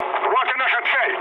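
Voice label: near waypoint, mood low, Russian; War Thunder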